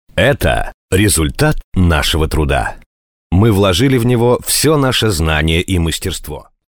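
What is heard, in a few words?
Russian, male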